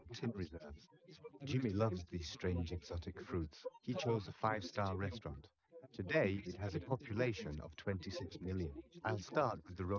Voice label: male, inst